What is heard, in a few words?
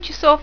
"chasov", Speaking Clock